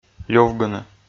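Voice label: "лювгыны", Komi, male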